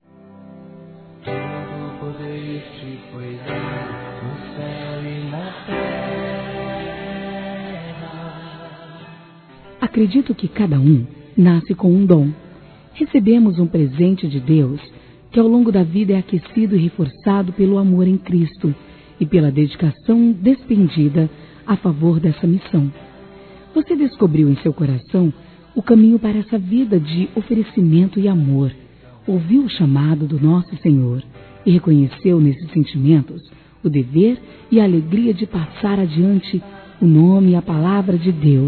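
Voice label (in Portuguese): HOMENAGEM AO PASTOR, feminino; Telemensagens; Telemensagens 2017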